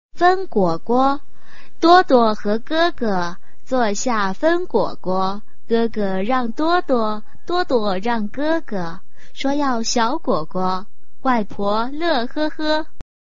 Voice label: chinese tongue twister